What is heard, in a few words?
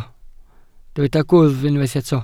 "gwddf", Welsh